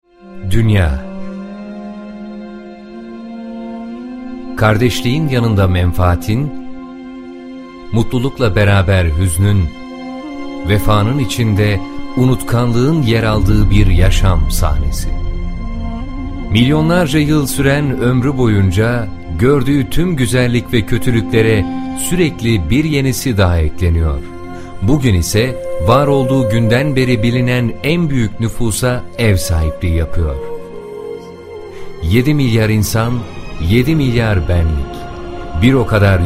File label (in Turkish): belgesel